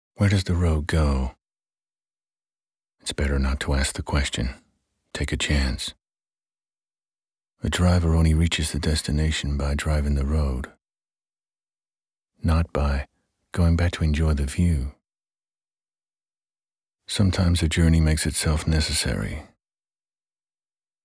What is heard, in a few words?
US laid back accent, voiceover; Drive